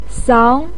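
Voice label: "sao", Mandarin Chinese syllable, second tone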